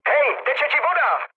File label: damaged water, speech cze, mood med; War Thunder